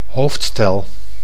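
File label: "hoofdstel", Dutch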